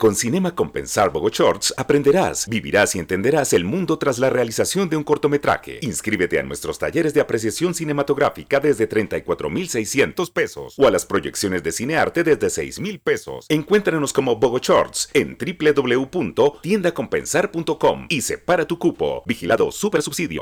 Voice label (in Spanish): locución institucional